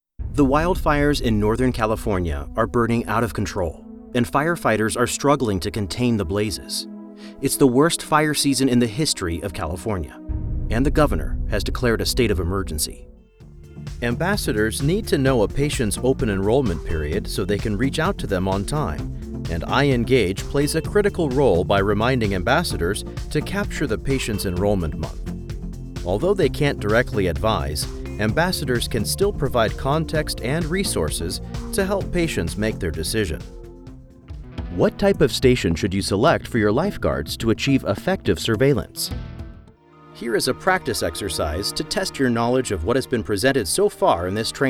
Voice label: E-Learning Demo with Music

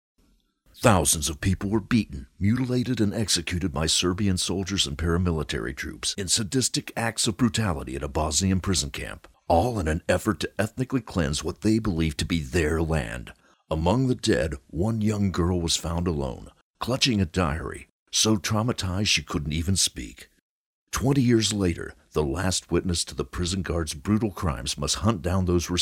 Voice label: Book Trailer; The Last Witness

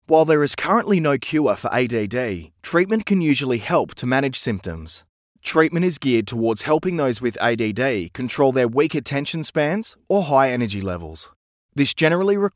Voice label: Medical Narration Script

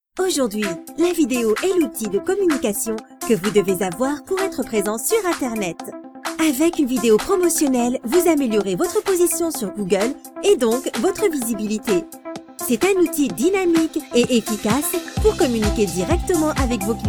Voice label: corporate video

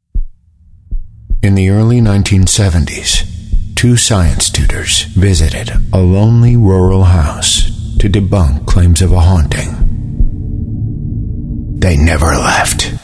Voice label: Horror Movie Trailer

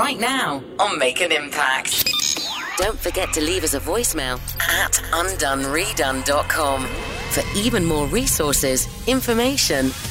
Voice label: Podcast

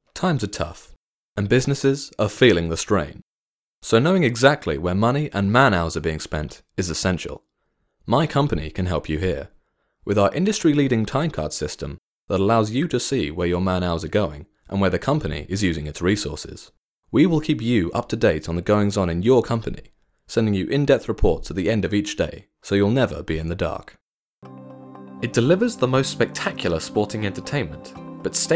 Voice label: Advertising marketing

